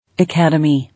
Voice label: "Academy", English